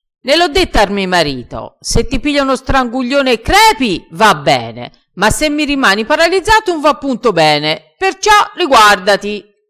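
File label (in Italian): "stranguglione", dialetto crespinese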